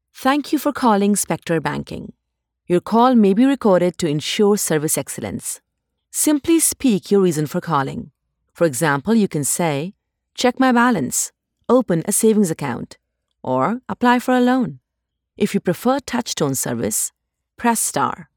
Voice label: IVR Banking

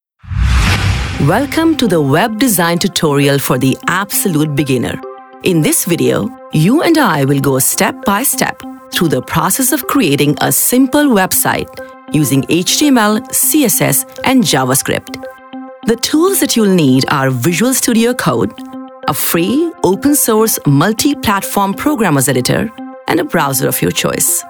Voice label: E tutorial, narration

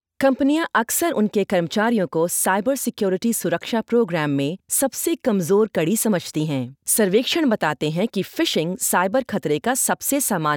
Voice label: Phishing ELearning Hindi

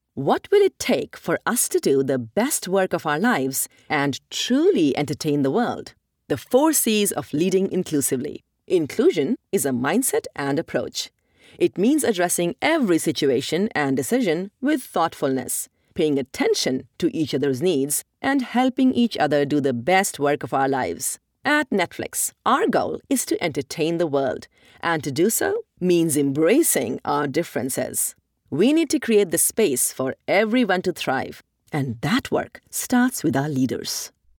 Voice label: Corp Narr; Netflix

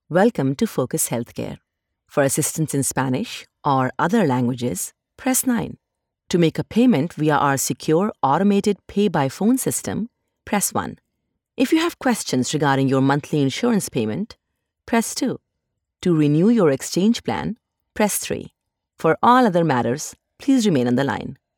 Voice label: IVR Heathcare

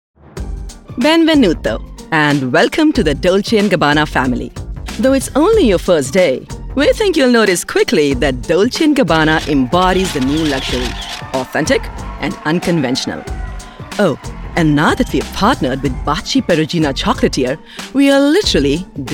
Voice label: Amusing, elearning

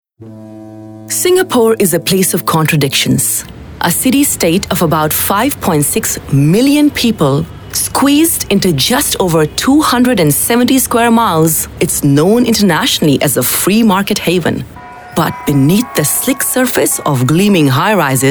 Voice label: narration, Politics

